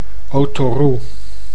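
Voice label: "otorroe", Dutch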